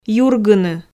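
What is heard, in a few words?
"юргыны", Komi, female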